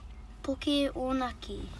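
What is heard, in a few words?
"pukeonaki", Māori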